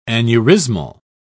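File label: "aneurismal", British English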